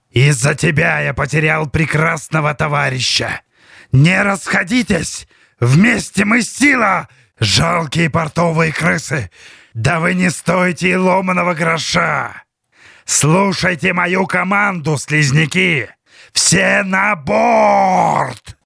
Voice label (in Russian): zloj pirat ili ork